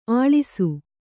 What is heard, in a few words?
"ಅಳಸು", Kannada